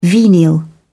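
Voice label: "venial", British English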